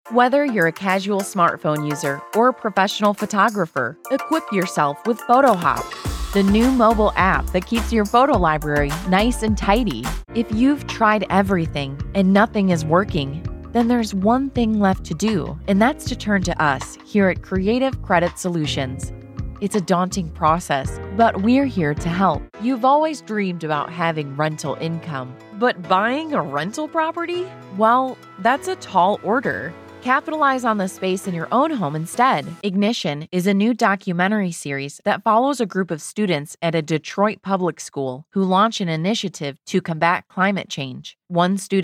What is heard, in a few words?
Narration; AdvantageIVR